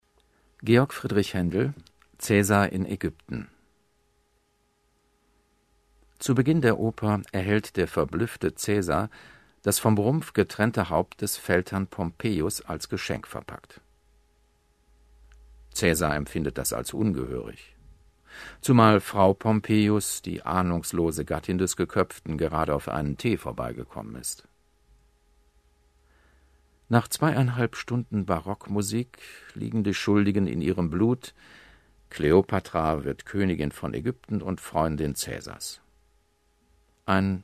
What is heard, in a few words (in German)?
hoerprobe, Bayerische Blindenhörbücherei